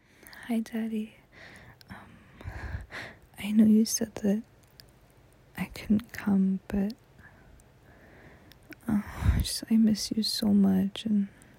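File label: Voicemail, improv, bratty, female; 2 Minute Voicemail to Daddy; f4m; ddlg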